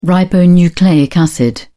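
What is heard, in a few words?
"ribonucleic acid", British English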